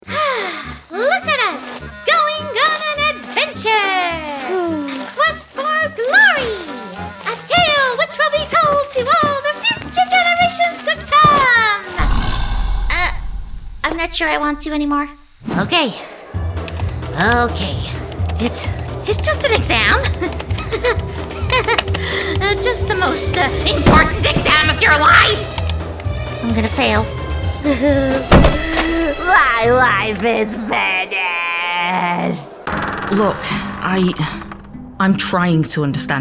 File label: Character Voice